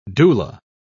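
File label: "doula", British English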